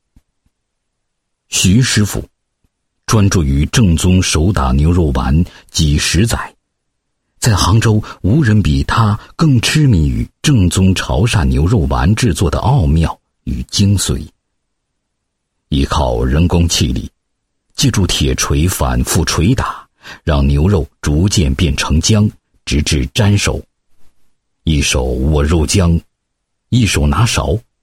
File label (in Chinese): 男 产品介绍 宣传介绍